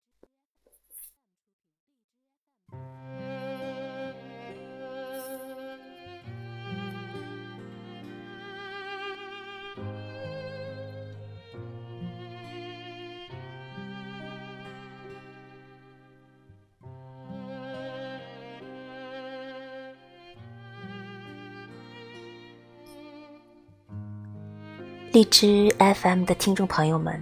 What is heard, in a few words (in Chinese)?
电台开篇语